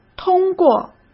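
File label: "通过", Mandarin Chinese; hsk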